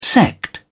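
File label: "sect", British English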